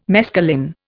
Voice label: "mescaline", Indian